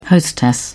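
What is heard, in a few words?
"hostess", British English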